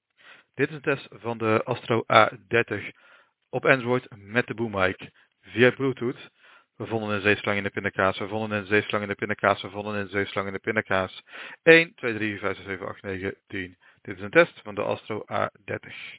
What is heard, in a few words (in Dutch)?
Android met boommic